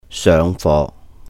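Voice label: "séuhng fo", Cantonese; attend class; 上課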